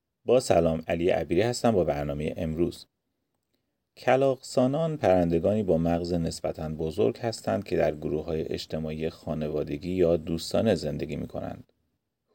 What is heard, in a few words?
persian; شباهت شگفت انگیز تصمیم گیری کلاغ ها با انسان; SBS Persian